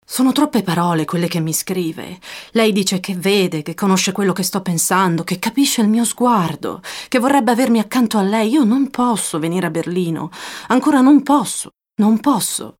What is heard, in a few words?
female italian comercial; ideasonora